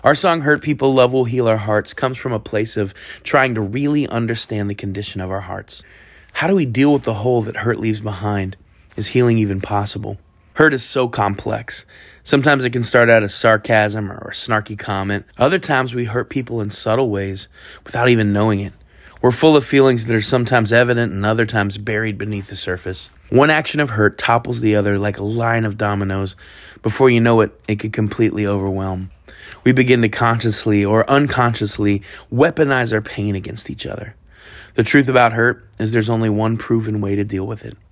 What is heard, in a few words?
commentary